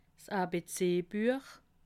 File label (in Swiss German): "abc", alsace